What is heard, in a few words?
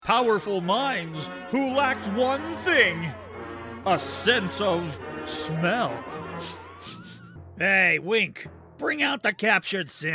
character voices